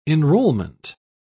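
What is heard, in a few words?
"enrollment", British English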